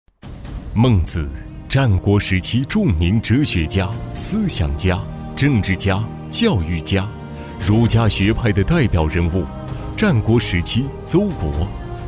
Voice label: 专题 政府 税务局 大气, 男; 邹城市税务局

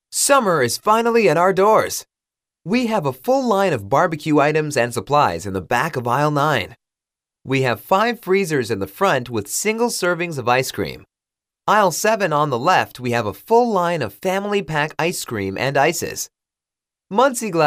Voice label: 外籍男英 外语 美式英语 自然

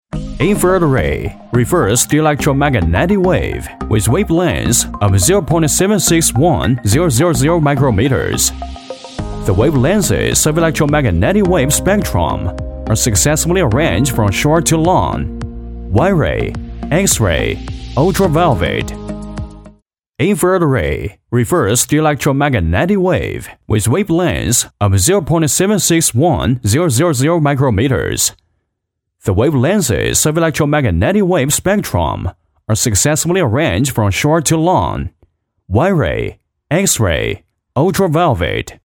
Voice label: 男英 宣传片 病毒 远红外线片; 英语

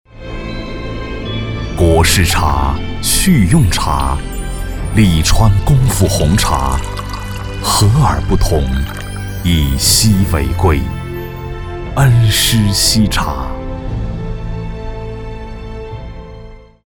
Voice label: 男国 广告 食品饮料 茶叶 大气

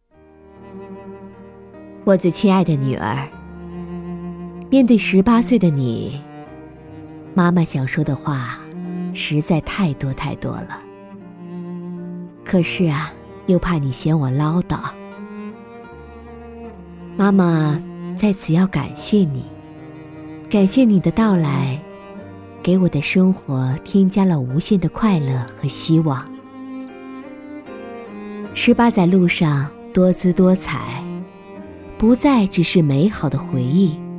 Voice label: 女 其他 旁白 女儿

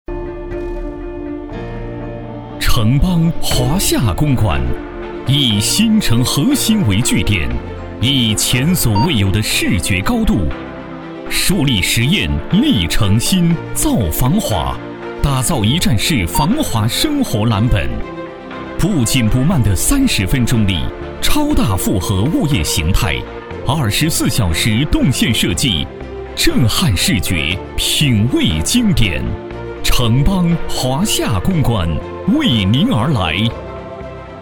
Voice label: 男 广告 沉稳; 地产; 华夏公馆地产

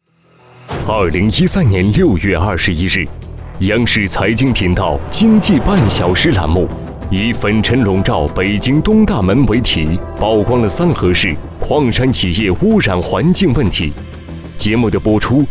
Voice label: 专题 政府 成熟, 男; 三河

